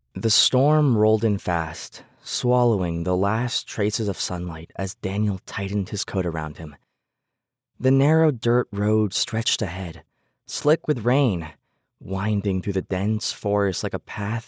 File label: Audiobook Sample; Spanish voice over for bilingual and Latin American audiences